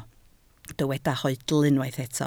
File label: "hoedl", Welsh